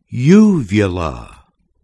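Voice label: "uvula", English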